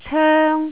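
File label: "coeng1", Cantonese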